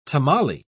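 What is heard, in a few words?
"tamale", British English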